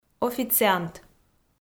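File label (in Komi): "официант", Komi, female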